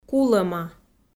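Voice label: "кулӧма", Komi, female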